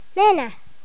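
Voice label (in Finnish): "nenä", Finnish; tdegames; ktuberling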